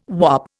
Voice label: "whop", Indian